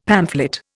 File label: "pamphlet", Indian; Dictionary